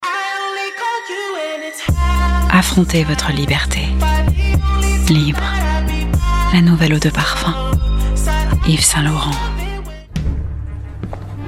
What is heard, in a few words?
female French commercial compilation; ysl and gucci